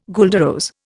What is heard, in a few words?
"guelder rose", Indian